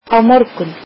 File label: "tomorrow", Icelandic